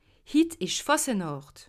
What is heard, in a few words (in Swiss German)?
"fasenacht", alsace